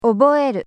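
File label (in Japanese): "oboeru", Japanese; nakama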